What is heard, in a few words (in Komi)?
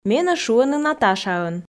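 менӧ шуӧны наташаӧн, Komi, female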